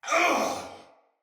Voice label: Attack Heavy Soldier Male; Battle; Barks; Dialog; Sinecure Soldier Male B